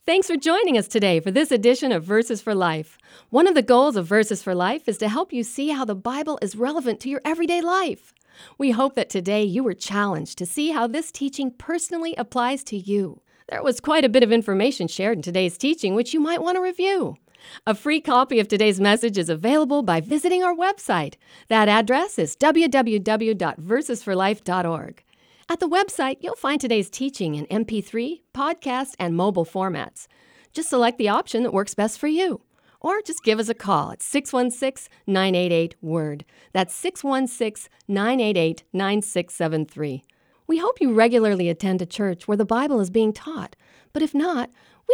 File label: Outro (Female); Verses For Life